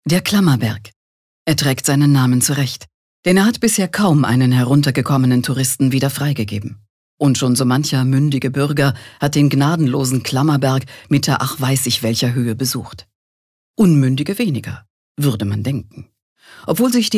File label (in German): Kommentar Neutral